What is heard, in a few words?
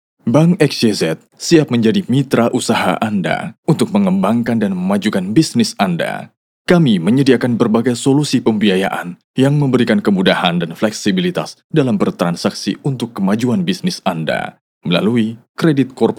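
FORMAL Indonesian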